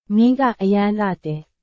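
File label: Burmese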